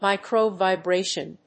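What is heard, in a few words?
"micro vibration", English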